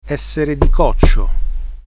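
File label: crazy Italian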